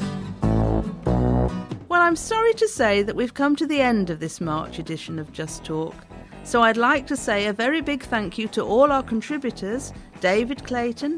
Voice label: Closing Announcement